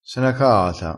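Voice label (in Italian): "caata", vocabolario dialettale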